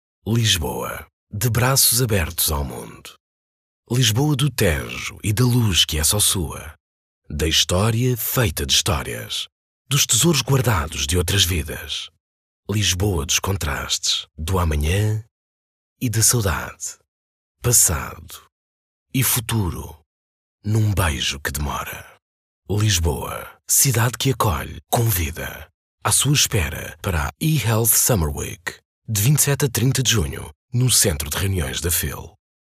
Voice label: MALE

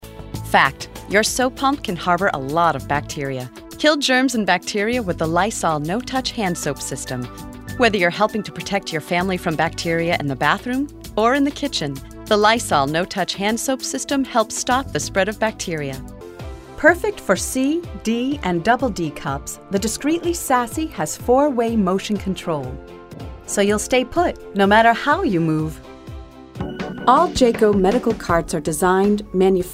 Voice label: Corporate Narration

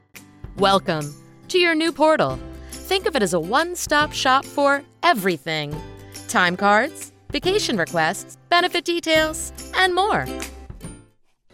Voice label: Voice E Learner

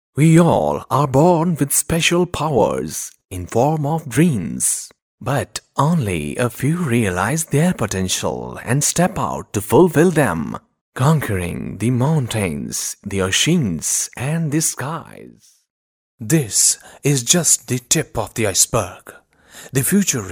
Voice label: ENGLISH-voice over

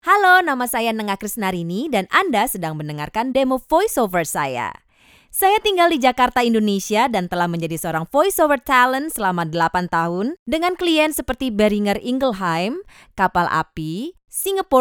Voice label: Studio Quality Female Indonesian

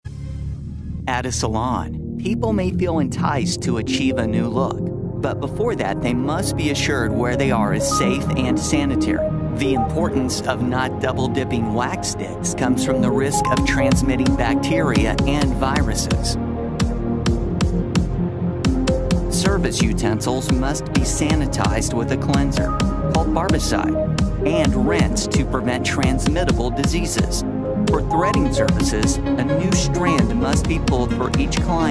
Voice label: brow hygiene narration

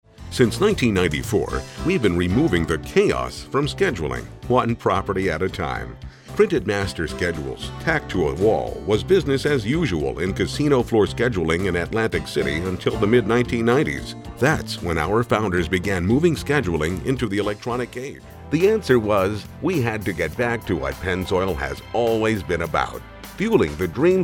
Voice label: Narr

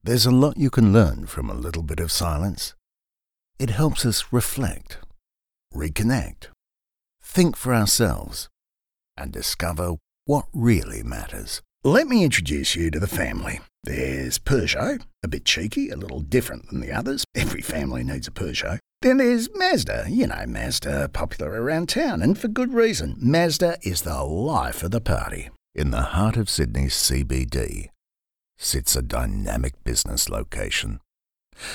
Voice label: Soft sell Guy

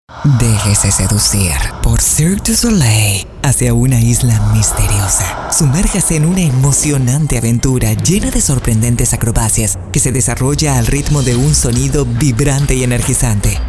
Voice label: SPAN; MALUNA; CIRQUE DU SOLEIL

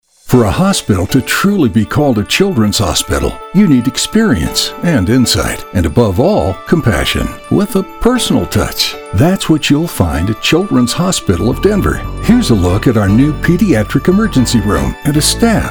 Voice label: VO Medical Narration

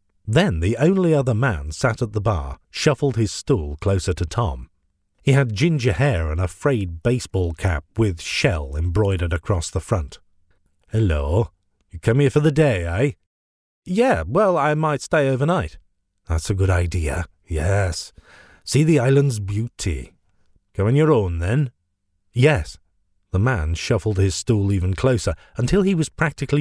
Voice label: Audiobooks

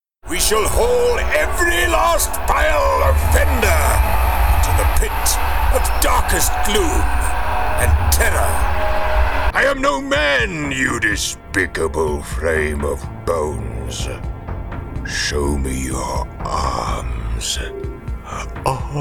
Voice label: Adult Gaming Voices

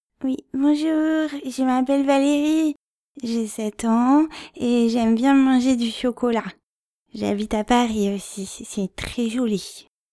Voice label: child

